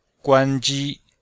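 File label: "guan1ji1", Chinese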